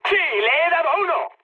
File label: player killed enemy, mood med, speech